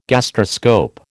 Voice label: "gastroscope", American